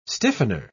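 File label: "stiffener", British English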